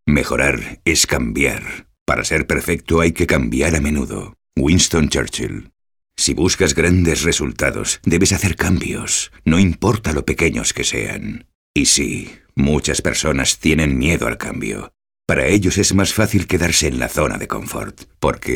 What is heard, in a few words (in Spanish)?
narración